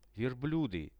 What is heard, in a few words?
"verbludy", Russian; верблюды; Кто это?; transport; visual-dictionary